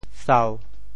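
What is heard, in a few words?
"sau1", Teochew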